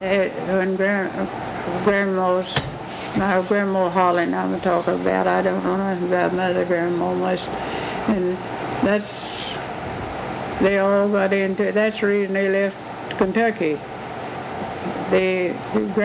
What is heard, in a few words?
Conversation with 91 year old male and 77 year old female Arkansas, american english dialect